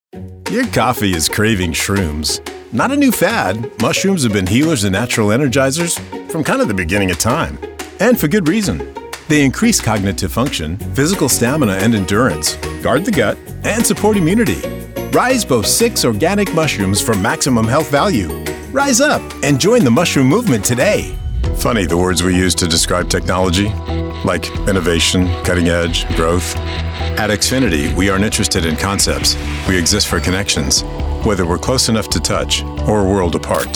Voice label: Commercial